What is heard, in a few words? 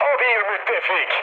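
Turkish player friendly fire, mood med, speech; War Thunder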